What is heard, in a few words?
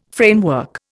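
"framework", Indian English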